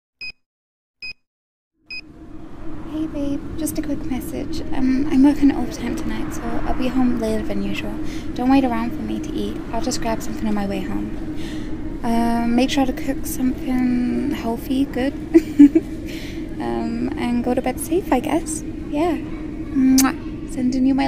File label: ASMR Your Girlfriend Cuddles You During A Thunderstom; F4A